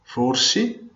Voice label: maybe, venetian